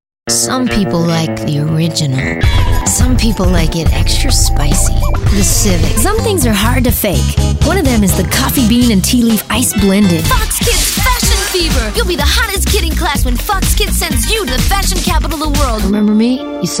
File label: commercial